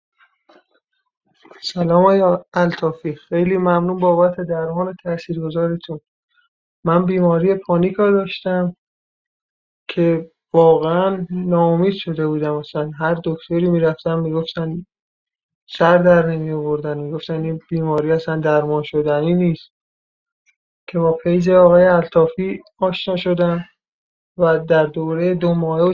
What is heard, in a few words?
panic treated patient voice about panic attack